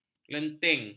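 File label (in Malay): "lenting", Malay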